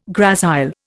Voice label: "gracile", Indian

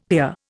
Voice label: "pier", Indian; Dictionary

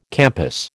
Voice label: "campus", American

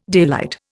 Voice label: "daylight", Indian

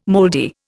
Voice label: "mouldy", Indian

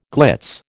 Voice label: "glitz", American

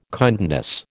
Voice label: "kindness", American

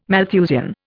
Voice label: "Malthusian", Indian

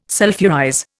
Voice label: "sulphurize", Indian

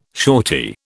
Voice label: "shortie", British

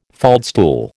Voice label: "faldstool", American

